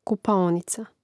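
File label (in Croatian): "kupaonica", Croatian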